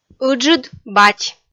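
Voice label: "ыджыд бать", Komi, female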